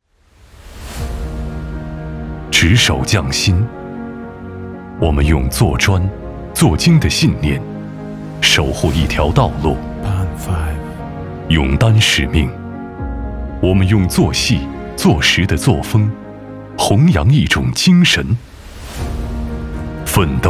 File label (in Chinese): 男, 宣传; 广韶高速